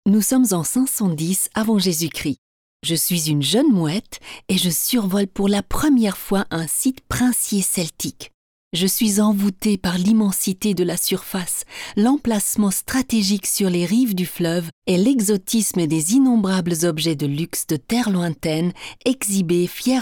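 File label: Audioguide Antikenmuseum Basel French; AveCaesar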